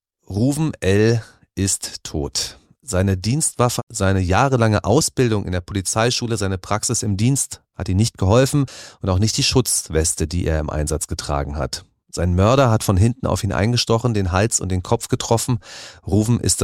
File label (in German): Interview; Kontrafunk aktuell